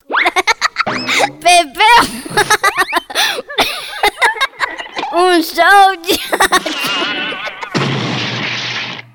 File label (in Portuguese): spot web radio risos